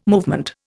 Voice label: "movement", Indian